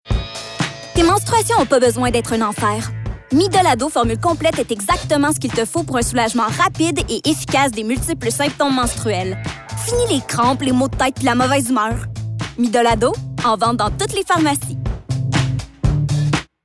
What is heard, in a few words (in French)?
Pub ado; Mydol